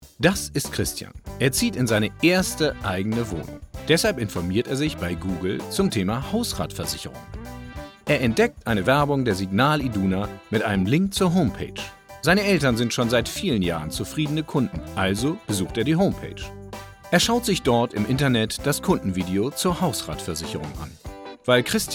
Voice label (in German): erklaerfilm